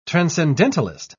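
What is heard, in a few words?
"transcendentalist", British English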